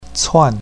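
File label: "cuan4", Chinese pinyin, fourth tone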